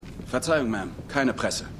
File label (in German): Mann; AlaskaDaily